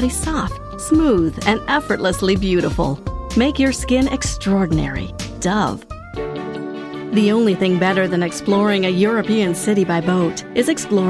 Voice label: Commercial, American voiceover actor who speaks English with a neutral accent